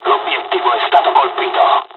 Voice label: japanese first wave, dialogs italian; War Thunder